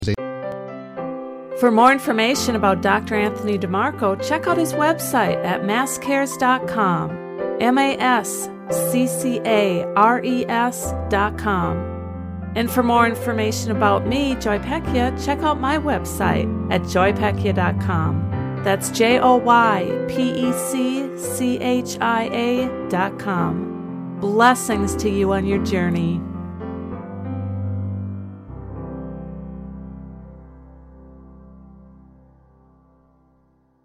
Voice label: Full Guided Relaxation Healing Visualization; pre-emptive healing